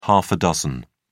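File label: "half a dozen", British English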